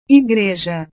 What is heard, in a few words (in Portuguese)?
"igreja", portugues